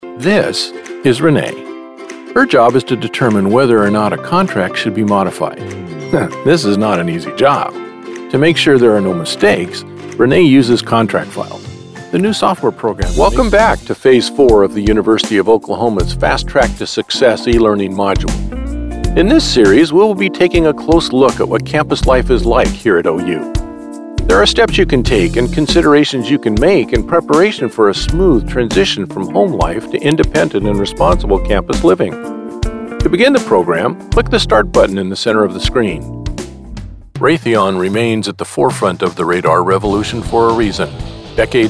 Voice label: NARRATION